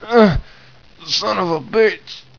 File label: pain; svencoop; roy